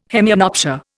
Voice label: "hemianopsia", Indian